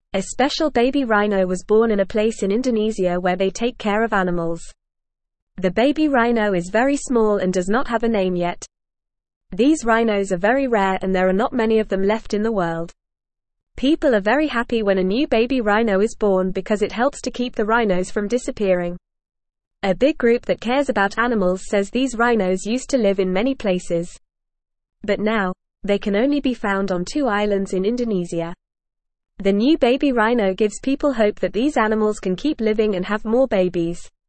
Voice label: English Newsroom Lower Intermediate FAST Reading; Baby Sumatran Rhino Brings Hope for Rare Animals